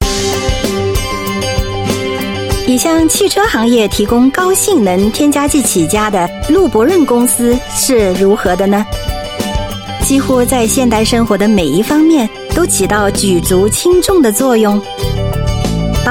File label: Mandarin, China Female